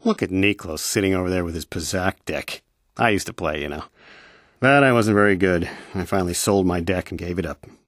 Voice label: male